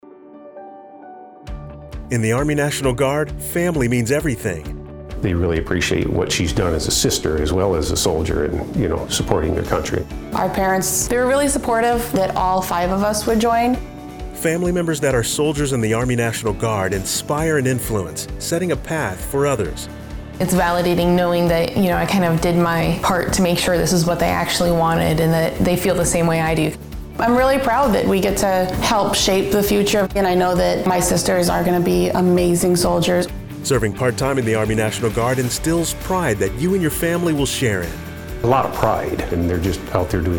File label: radio english; mn guard family